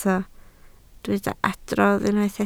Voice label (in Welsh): "adrodd", Welsh